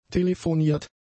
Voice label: "telefoniert", German; adjectives

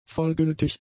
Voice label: "vollgültig", German; adjectives

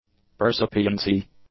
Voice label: "percipiency", English; nouns

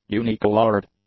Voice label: "unicoloured", english; adjectives

